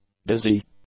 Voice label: "dizzy", English; adjectives

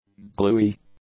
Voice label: "gluey", english; adjectives

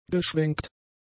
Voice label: "geschwenkt", German; adjectives

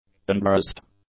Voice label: "undressed", English; adjectives

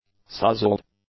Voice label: "sozzled", english; adjectives

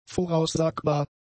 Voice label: "voraussagbar", German; adjectives

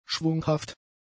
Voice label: "schwunghaft", German; adjectives

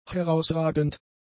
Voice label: "herausragend", German; adjectives

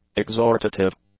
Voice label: "exhortative", english; adjectives

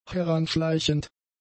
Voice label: "heranschleichend", German; adjectives

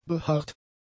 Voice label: "beharrt", German; adjectives

